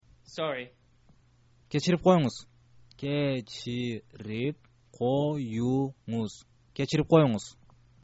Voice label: sorry, kyrgyz